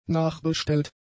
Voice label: "nachbestellt", German; adjectives

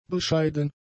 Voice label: "bescheiden", German; adjectives

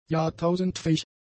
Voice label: "jahrtausendfähig", german; adjectives

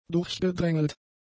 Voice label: "durchgedrängelt", German; adjectives

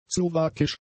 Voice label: "slowakisch", german; adjectives

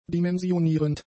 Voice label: "dimensionierend", german; adjectives

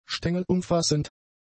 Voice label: "stengelumfassend", German; adjectives

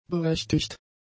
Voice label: "berechtigt", German; adjectives

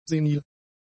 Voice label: "senil", German; adjectives

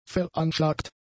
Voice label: "veranschlagt", German; adjectives

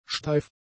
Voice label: "steif", German; adjectives

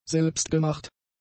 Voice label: "selbstgemacht", German; adjectives

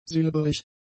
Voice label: "silberig", German; adjectives

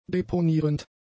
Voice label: "deponierend", german; adjectives

